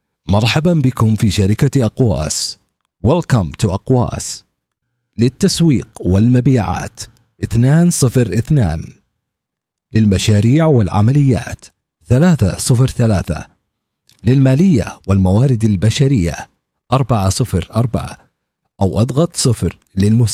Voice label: arabic ivr